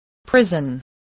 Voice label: "prison", voice, spelling